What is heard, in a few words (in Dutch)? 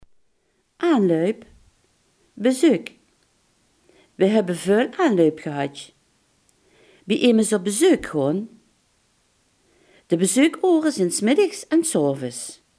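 "bezoek" Limburgish dialect word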